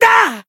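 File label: Voice attack, Japanese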